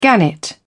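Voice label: "gannet", English, British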